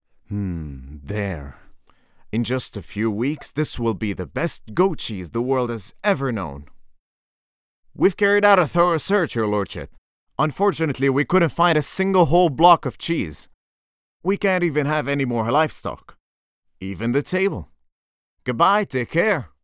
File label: English